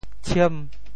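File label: "tshiem5", Teochew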